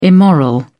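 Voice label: "immoral", British English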